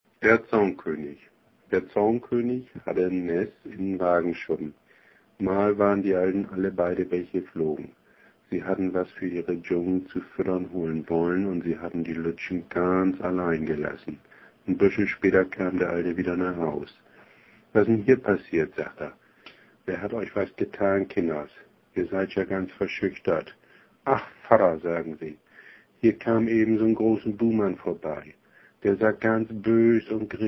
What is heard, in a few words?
bremen missingsch